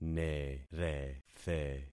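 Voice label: "nerethe", concatenated syllables; Affixing experiment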